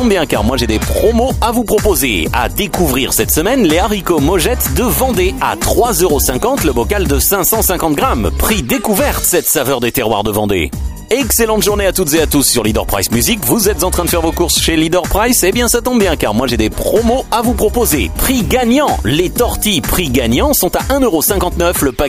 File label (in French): ANIMATION MAGASIN; DEMO ANIMATION MAGASIN